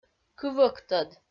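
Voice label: "кывӧктод" Komi, female; kpv audio dict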